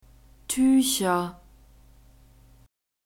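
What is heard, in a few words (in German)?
"tücher", German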